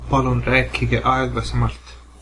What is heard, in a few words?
slowly, Estonian